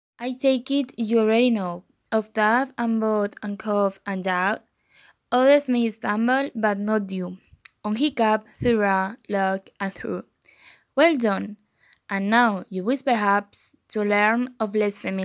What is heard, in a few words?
Poem pronunciation